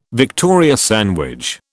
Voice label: "Victoria sandwich", British